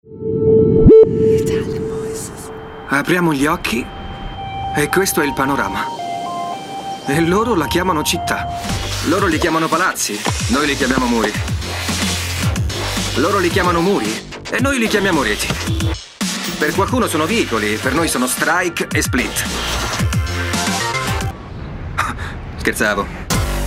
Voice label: ITALIAN VOICES Natural Cool, male voices